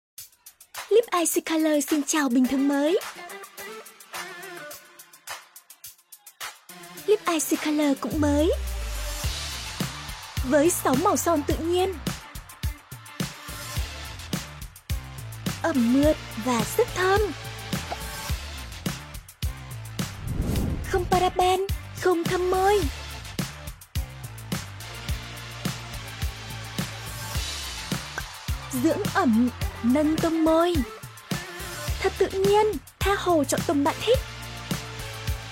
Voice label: TVC Giong Nu Mien Bac; Lipice